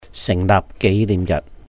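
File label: "Sìhng Laahp Géi Nihm Yaht", Cantonese; Establishment Day; 成立紀念日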